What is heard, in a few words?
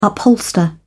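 "upholster", British English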